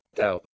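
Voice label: "dheub", Proto-Indo-European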